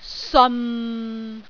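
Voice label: "seon6", Cantonese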